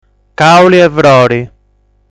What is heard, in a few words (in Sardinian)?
"Càuli De Frori", sardu